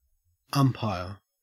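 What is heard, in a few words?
"umpire", Australian English; pronunciations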